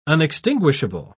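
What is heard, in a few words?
"unextinguishable", English, uk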